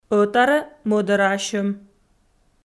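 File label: "ӧтарӧ-мӧдарӧасьӧм", Komi, female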